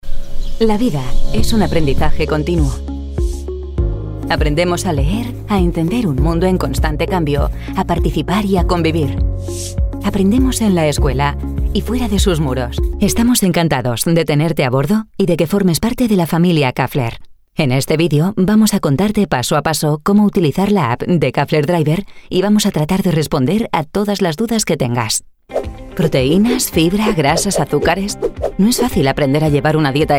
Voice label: Spanish VO Narration